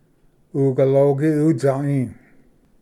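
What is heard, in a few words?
"ugalogi utsai", Cherokee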